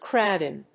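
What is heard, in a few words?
"crowd in", English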